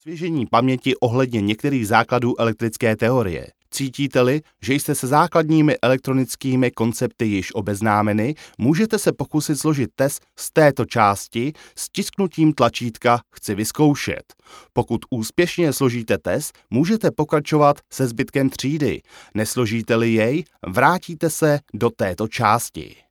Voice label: czech e-learning